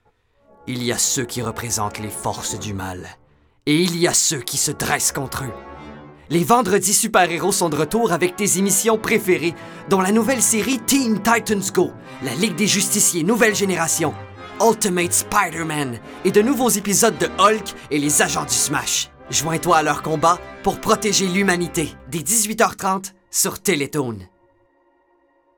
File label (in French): francais quebecois naturel normatif annonceur personnage serieux; teletoon; animateur